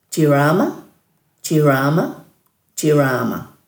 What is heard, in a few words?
"djiraama", Dhurga